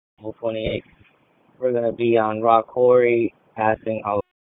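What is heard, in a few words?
Raleigh Police Southeast District Dispatch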